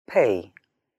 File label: "Pay", Hebrew; hebrew introduction